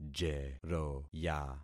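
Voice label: "jeroya", concatenated syllables; Affixing experiment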